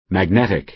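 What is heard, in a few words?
"magnetic", American English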